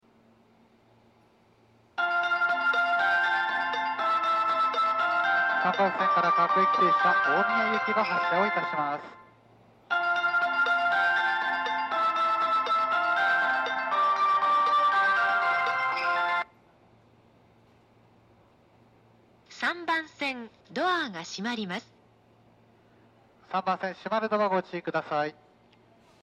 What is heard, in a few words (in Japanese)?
発車放送, 新宿駅